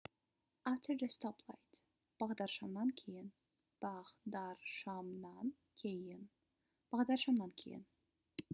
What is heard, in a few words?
After the stoplight, kazakh